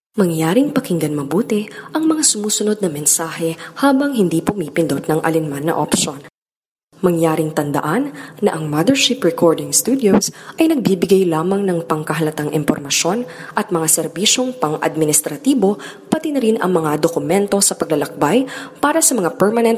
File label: Female Tagalog IVRS Voice Sample